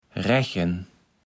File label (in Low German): "Raechen", Platt